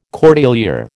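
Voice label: "cordelier", American English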